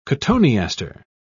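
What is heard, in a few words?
"cotoneaster", British English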